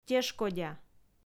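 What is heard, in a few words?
"тешкодя", Komi, female; audio dict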